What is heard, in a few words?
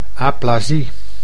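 "aplasie", Dutch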